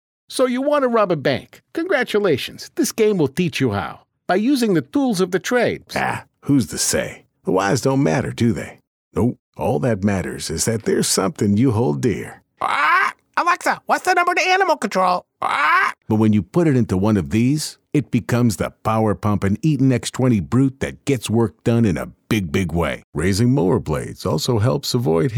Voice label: Character Voice

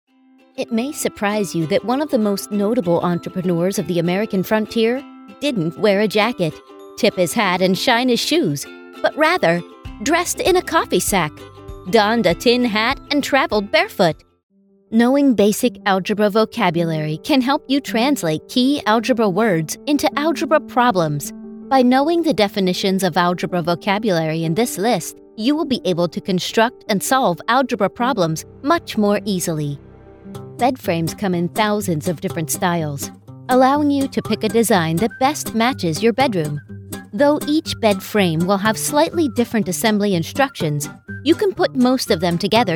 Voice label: eLearning Gig Video

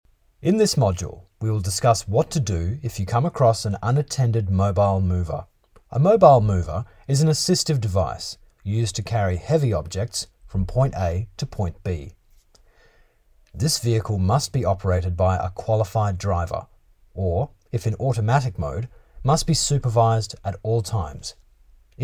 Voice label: e learning

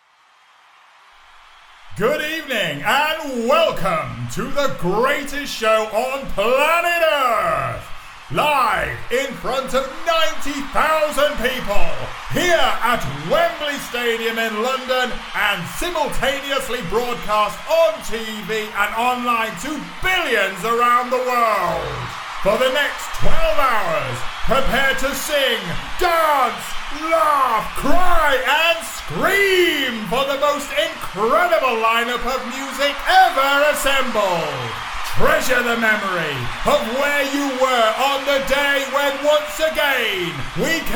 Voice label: vog announcer

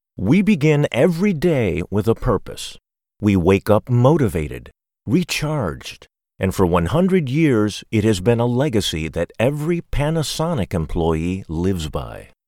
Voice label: Inspirational; PANASONIC

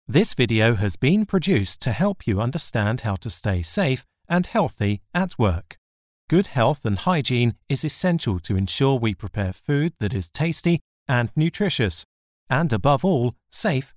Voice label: E Learning

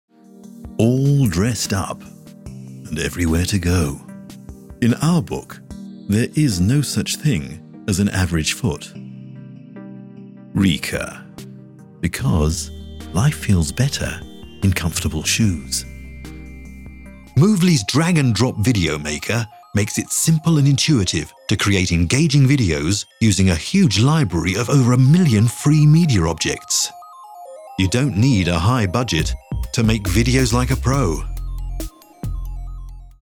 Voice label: radio commercials

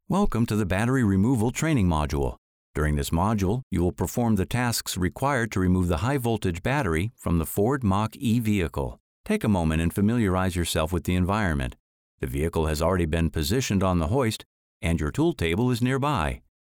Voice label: eLearning VR Module; Ford

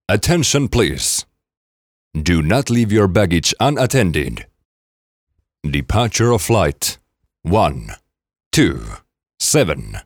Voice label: announcements

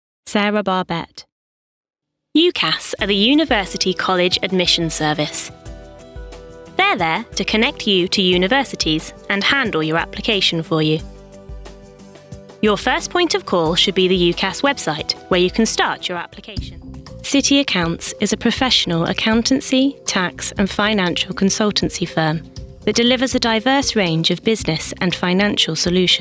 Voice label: Corporate

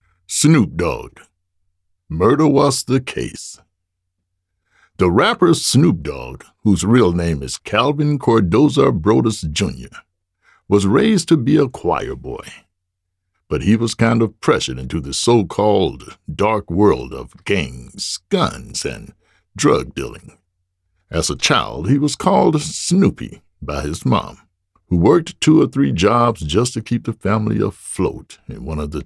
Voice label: AUDIOBOOK

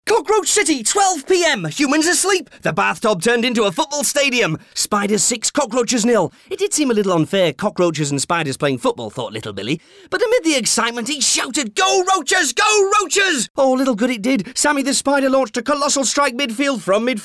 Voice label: Comedy Kids Narrative; Extract from Cockroach City

